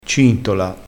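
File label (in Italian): "cintola", dialettale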